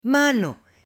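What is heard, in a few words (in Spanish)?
"mano", Spanish, female; CUERPO; AppLenguaje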